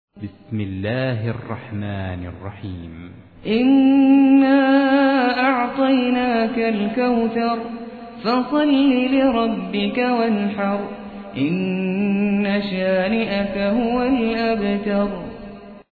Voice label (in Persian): ترتیل; سوره کوثر